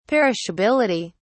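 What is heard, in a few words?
"perishability", British English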